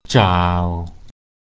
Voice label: "zhao3", Mandarin; search

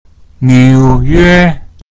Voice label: "niu3 yue1", Mandarin; New York

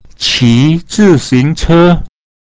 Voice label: qi zi xing che, chinese

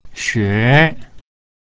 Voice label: "xue2" Mandarin; (to learn)

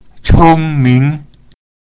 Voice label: "cong1ming2", Chinese

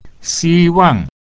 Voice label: xi1 wang4, Mandarin; (to hope); Chinese

